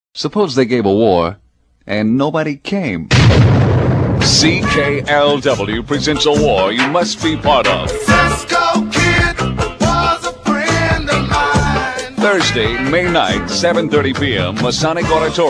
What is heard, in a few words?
War Concert Promo